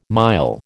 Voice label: "mile", American